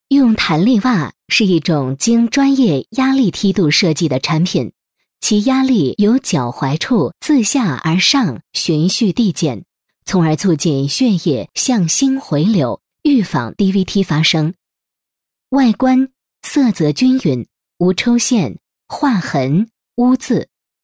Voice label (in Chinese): 女, 医疗产品, 弹力袜, 稳重; 中英双语; 成熟知性